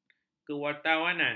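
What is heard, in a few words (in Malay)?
"kewartawanan", Malay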